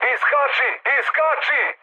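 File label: Serbian bailout mood med, speech; War Thunder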